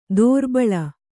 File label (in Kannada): "ದೋರ್ಬಲಿ", kannada